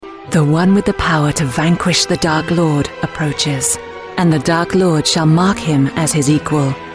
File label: promo; harry potter